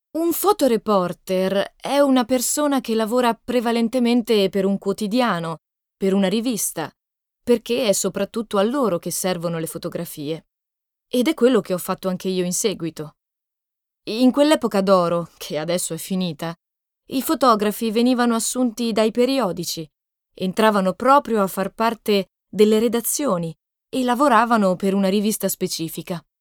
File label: voice over, Italian, female; voice over reel